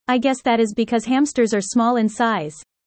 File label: user fast; Animal friends